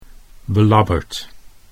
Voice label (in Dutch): "belabberd", Dutch